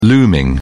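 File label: "looming", British English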